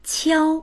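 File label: "qiao1", Mandarin Chinese; 敲; たたく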